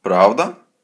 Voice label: dialogue, Ukrainian